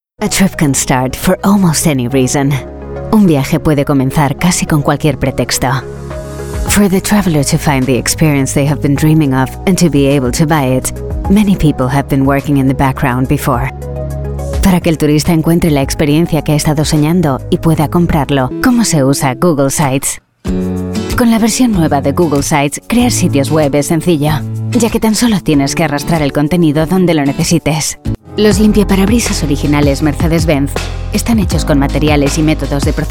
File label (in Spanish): Corporativo; friendly